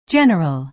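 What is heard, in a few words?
"general", American English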